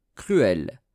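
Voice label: "cruelle", French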